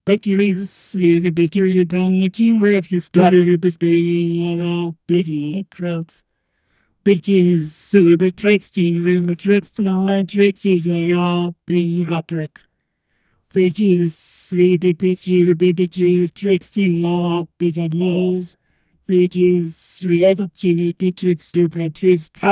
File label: a bold voice saying, announcer